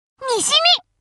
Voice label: chitchat, voice commands Anime Loli; svencoop